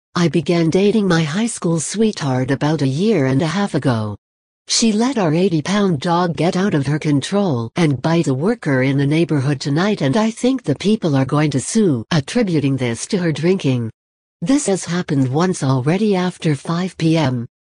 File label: Recorded eaves dropping